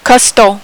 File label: "costaud", French